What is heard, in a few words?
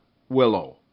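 "willow", English; RhymeTest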